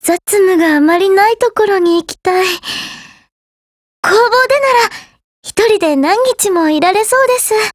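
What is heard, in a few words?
DIALOGUE, Japanese; Type88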